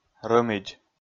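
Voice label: "рӧмидз", Komi, male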